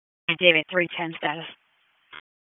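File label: Police Southeast District Dispatch; wake p25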